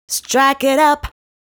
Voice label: "Strike It Up", vocal phrases; Ultimate Vocals